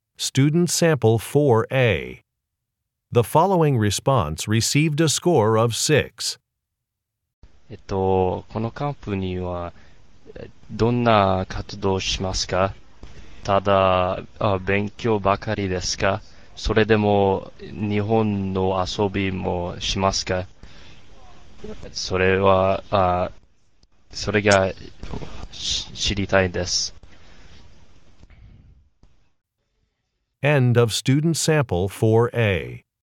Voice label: japanese conversation